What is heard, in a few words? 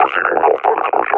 reconnoiter start, officer; Half Life Alyx; Half Life Model Pack